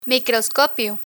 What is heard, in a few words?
"microscopio" Spanish; learn spanish